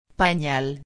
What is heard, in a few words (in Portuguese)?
"panal", espanhol